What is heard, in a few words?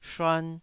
"shuan2", taiwanese Syllables